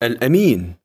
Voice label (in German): "elamin", arabische jungennamen